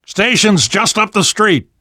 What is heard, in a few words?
world hospital, namvet, survivor voice